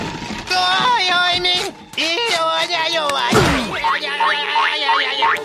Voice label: doodlebob gibberish